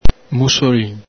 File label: "musolin", Venetian